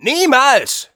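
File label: Scout no, German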